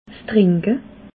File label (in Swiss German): "trinke", alsace